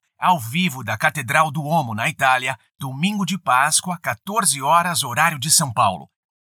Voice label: portuguese brazilian announcements